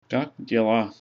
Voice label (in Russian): how are you, ru; Как дела?